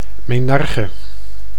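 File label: "menarche", Dutch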